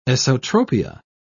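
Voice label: "esotropia", British English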